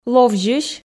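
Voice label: "ловзьысь", Komi, female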